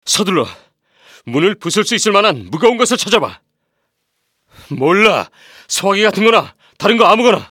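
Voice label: korean male character; voice demos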